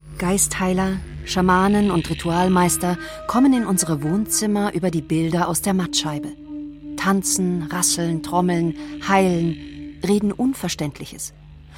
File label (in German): Kommentar, frauen; BR; Das wilde Denken